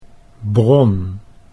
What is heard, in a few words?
"bron", Dutch